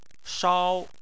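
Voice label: "shao" tone 1, Chinese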